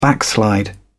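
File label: "backslide", British English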